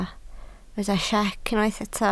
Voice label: "siec", Welsh

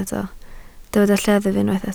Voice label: "lleddf", Welsh

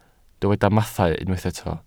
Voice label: "mathau", Welsh

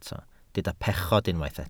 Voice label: "pechod", Welsh

